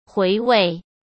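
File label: "回味", Mandarin Chinese; hsk; chinese-flashcards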